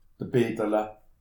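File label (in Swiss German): "peterle", Alsatian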